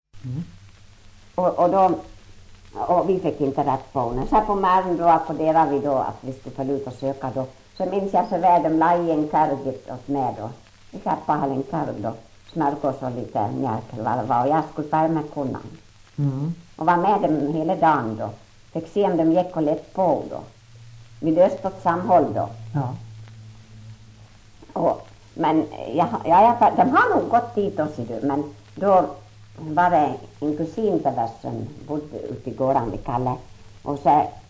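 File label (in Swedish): Ål Dalarna, dialekter; Folkminnen; Svenska sagor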